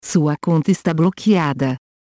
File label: Account Locked, pt